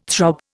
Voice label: "throb", Indian

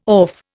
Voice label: "orfe", Indian

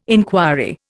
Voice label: "enquiry", Indian

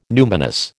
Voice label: "numinous", American

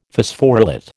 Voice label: "Phosphorylate", American

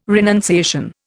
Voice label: "renunciation", Indian

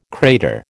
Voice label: "crater", American

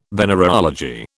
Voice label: "venereology", British

